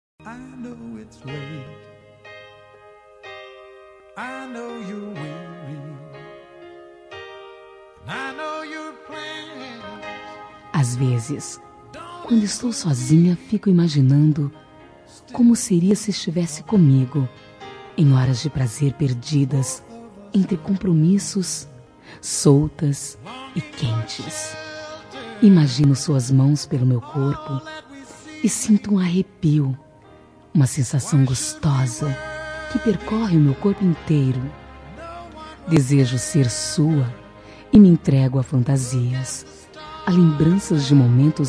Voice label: Romântica Picante

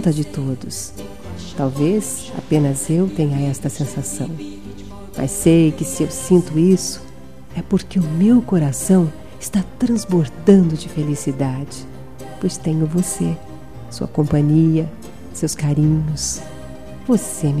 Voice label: Namorados Hoje Quero Estar Com Voce F

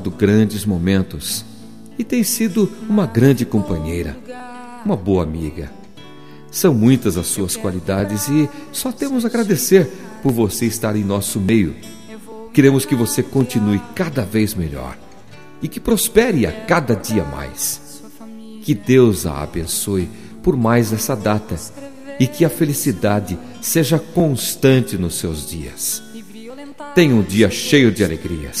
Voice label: Aniv. de Nora, M

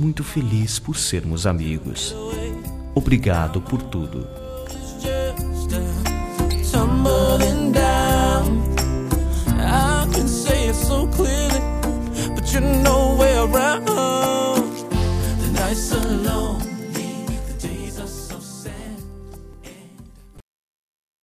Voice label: Amizade Mensagem pra Amiga M

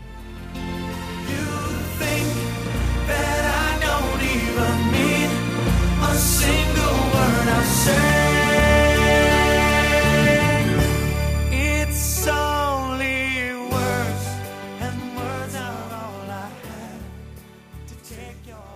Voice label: Amizade Mensagem pra Amigo F

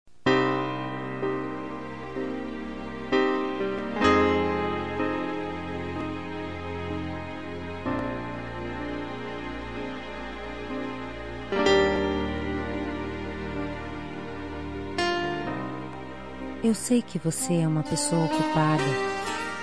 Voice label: Romântica Lembre se de Mim

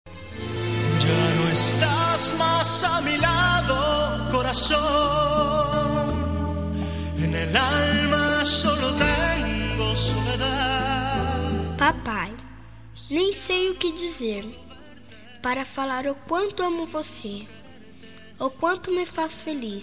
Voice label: Dia dos Pais F Infantil, telemensagens

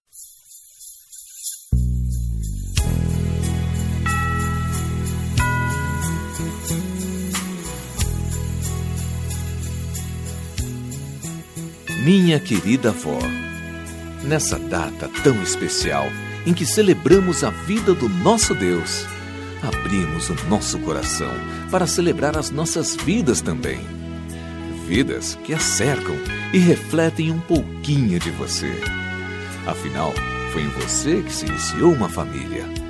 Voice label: Feliz Natal pra Avo, M

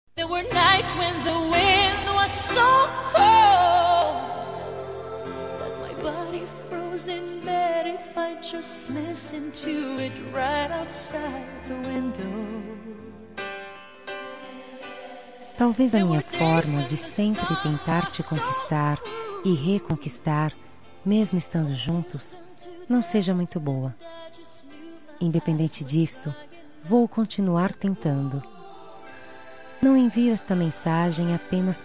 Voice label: Romântica, F; Gosto Muito de Você

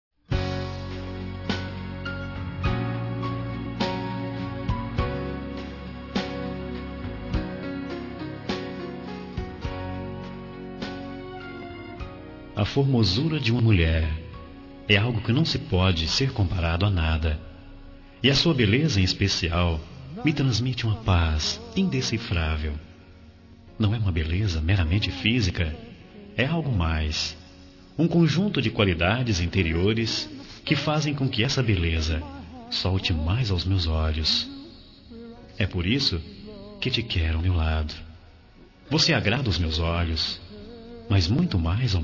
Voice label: Romântica Com Conquista

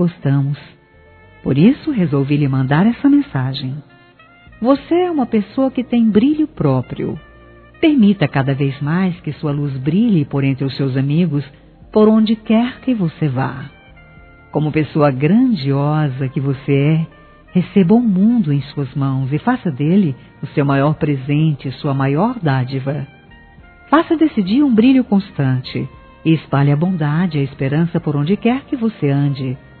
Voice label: Aniv. de Genro Distante, F

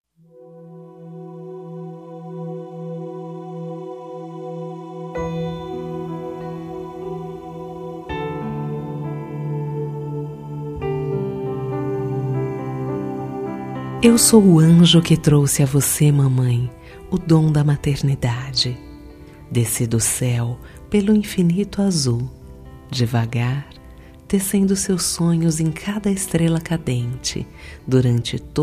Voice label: Dia das Maes, F

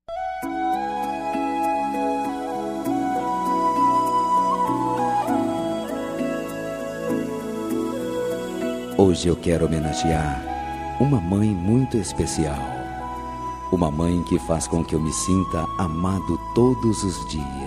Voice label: Dia das Maes pra Esposa, M

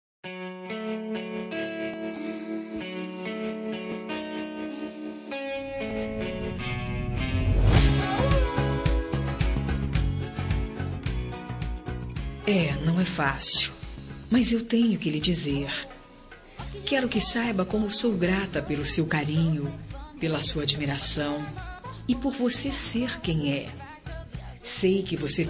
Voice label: Romântica, F; Dando o Fora

